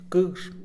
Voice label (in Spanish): "alto", Mixe, hombre; Espaciales